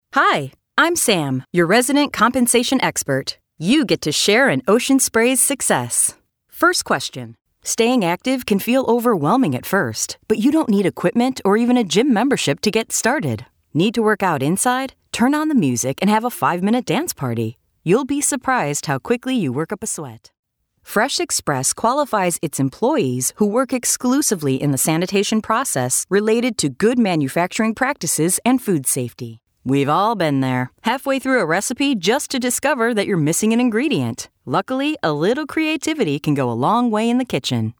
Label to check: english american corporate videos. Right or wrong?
Right